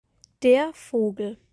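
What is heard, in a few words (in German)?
"Vogel", German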